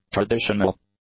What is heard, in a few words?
"traditional", English; adjectives